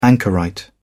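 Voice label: "anchorite", English, British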